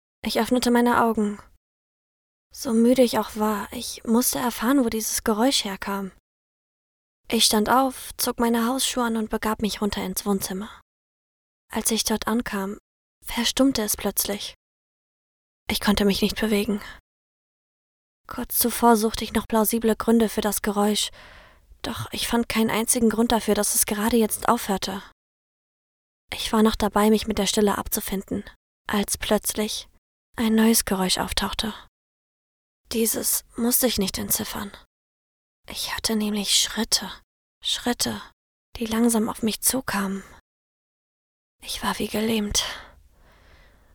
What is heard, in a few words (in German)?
Gruselig